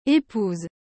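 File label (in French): "épouse", French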